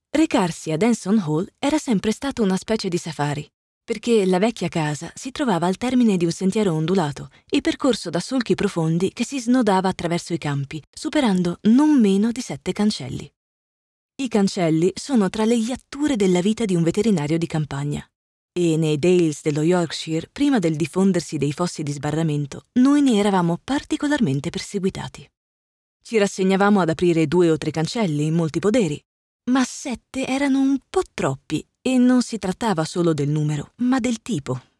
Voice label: ITA Audiobook

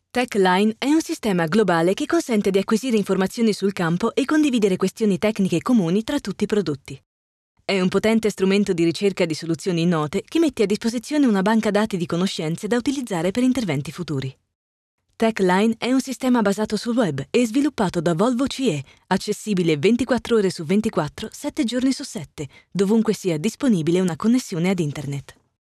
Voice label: ITA corporate

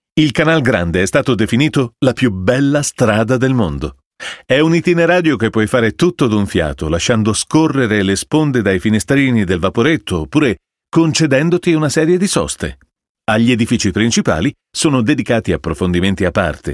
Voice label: Musei Audioguide

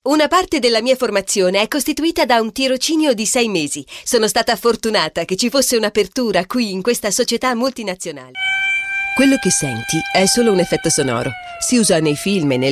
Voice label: ITA Female

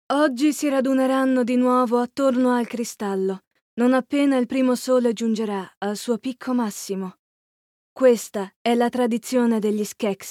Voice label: ITA Character oracle woman

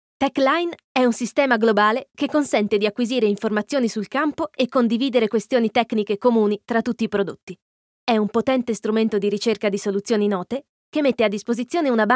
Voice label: ITA corporate

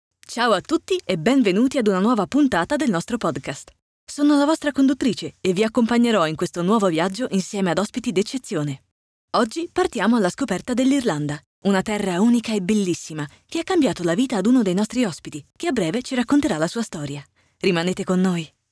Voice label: Casual Podcast

